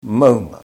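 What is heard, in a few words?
"maunga", Māori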